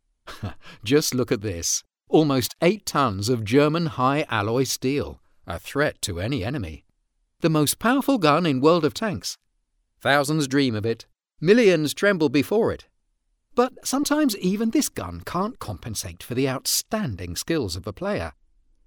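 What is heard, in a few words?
British English, male, voice over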